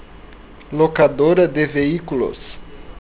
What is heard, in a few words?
rental, portuguese